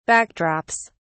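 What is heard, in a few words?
"backdrops", British English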